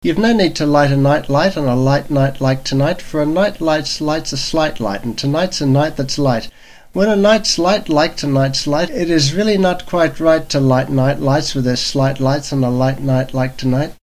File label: Microphone Test